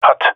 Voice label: "hut", male; vo; agents; Source Model Packs; Counter Strike Model Pack; CS2; jungle male epic